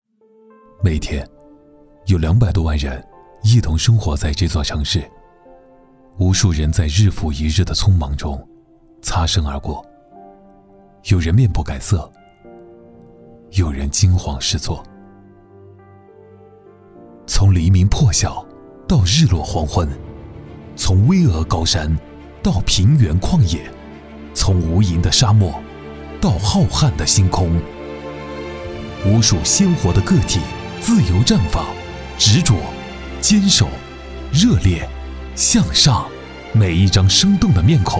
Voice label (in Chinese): 男 干音